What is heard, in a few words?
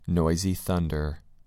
noisy thunder